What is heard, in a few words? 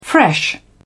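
"fresh", British English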